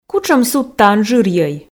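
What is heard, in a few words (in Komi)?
кутшӧм судтаын жырйӧй, Komi, female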